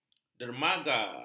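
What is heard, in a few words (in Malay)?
"dermaga", Malay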